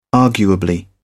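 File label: "arguably", British English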